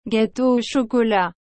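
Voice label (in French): "gâteau au chocolat", French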